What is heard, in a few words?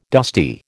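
"dusty", American English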